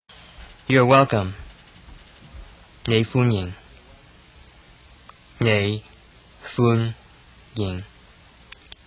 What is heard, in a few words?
Cantonese Welcome